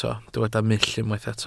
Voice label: "mull", Welsh

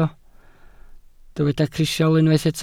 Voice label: "crisial", welsh

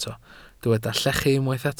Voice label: "llechu", Welsh

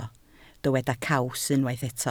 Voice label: "caws", Welsh

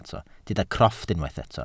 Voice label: "crofft", welsh

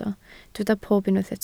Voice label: "pob", Welsh

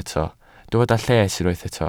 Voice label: "lles", Welsh

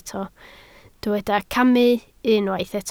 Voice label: "camu", Welsh

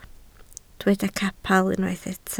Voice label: "capel", Welsh

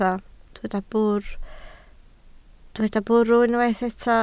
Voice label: "bwrw", Welsh

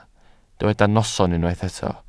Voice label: "noson", Welsh

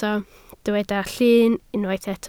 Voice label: "llun", Welsh

